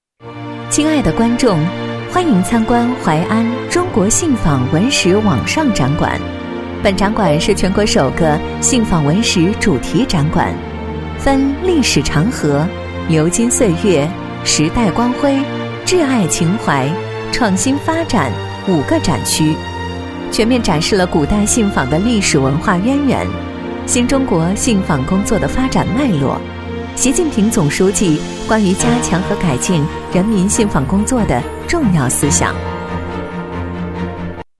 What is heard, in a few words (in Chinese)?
女 多媒体 展览馆样音